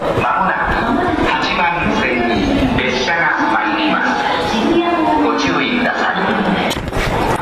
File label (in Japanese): 到着放送, 池袋駅; ダイヤ乱れの中、始発電車到着です。; 山手線; 上野・東京方面